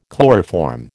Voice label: "chloroform", American